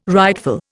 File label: "rightful", Indian